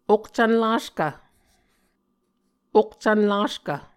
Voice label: "okcvn laskv", muscogee

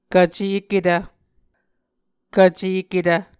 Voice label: "kvceketv", Muscogee

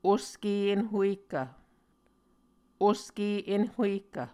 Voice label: "oske enhuehkv", Muscogee

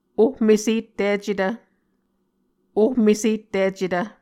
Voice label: "ohmesetticetv", Muscogee

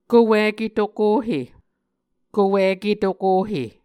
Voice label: "kowike tokohe", Muscogee; Mvskoke Words with Voicing Consonants; A Dictionary of Creek/Muskogee; SIL International

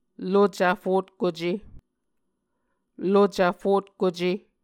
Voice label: "lucv fotkuce", Muscogee

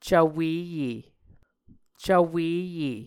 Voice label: "cvweye", muscogee

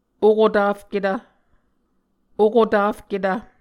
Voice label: "okotafketv", Muscogee

